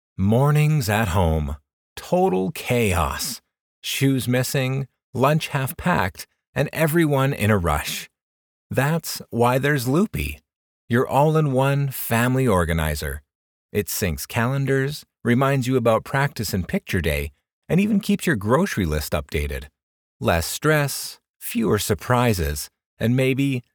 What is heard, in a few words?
Explainer - Friendly, Efficient, Relatable